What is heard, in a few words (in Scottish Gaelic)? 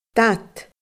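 "dad", Scottish Gaelic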